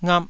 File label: "ngap2", taiwanese syllables